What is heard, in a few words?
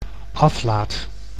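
"aflaat", Dutch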